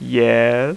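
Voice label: "yes" male; voice; Web Clip Empire 150,000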